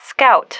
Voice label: "scout", female